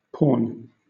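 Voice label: "pawn", British English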